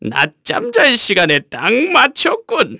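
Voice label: Vox Victory, Korean; Oddy; kingsraid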